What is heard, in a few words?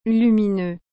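"lumineux", French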